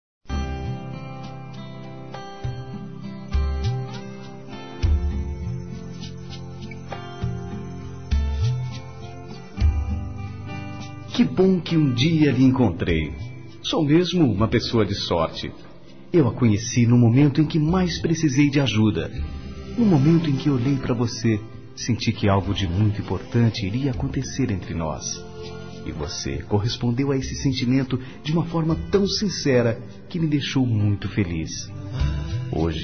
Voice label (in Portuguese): ANIV. DE NAMORO M; Aniversários; ANIVERSARIO romantico; masc